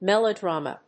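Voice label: "melodrama", English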